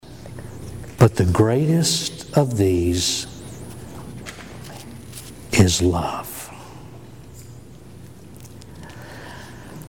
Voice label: wireless audio noisey wireless agc bad audio level fixed